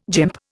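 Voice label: "gymp", Indian English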